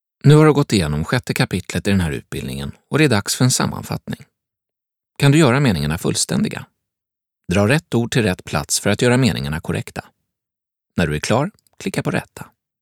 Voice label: se elearning